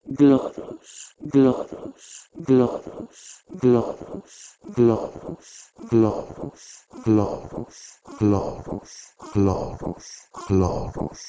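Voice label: Proto-Indo-European ghlh3ros to Ancient Greek khloros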